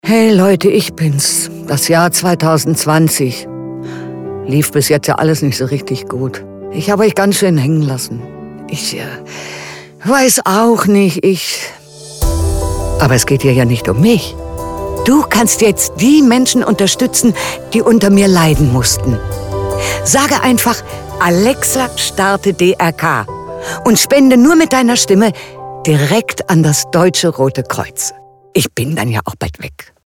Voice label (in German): Radiospot; DRK